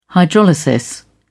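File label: "hydrolysis", British English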